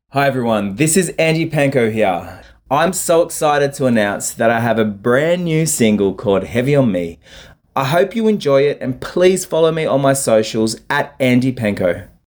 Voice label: radio intro